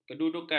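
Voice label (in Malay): "kedudukan", Malay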